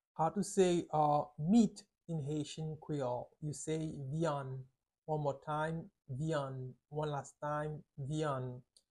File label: "Vyann" Haitian Creole pronunciation by a native Haitian tutor; How to say Meat in Haitian Creole